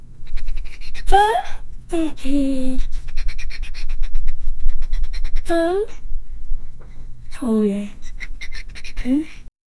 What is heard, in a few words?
a girl talking, nervous